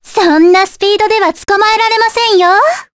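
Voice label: voice, Japanese; Cassandra; kingsraid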